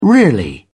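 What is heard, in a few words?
"really", British English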